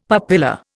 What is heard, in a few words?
"papilla", Indian; Dictionary